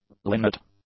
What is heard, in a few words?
"linnet", English; nouns